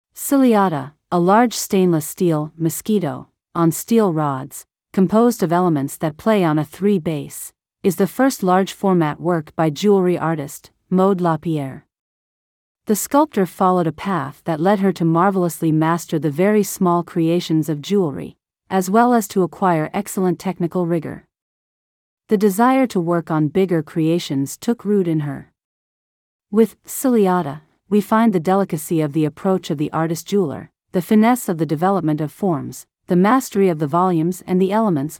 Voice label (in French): anglais